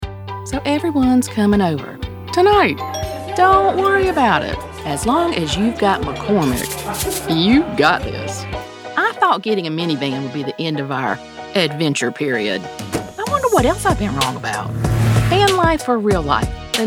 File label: Commercial